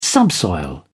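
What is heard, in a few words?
"subsoil", British English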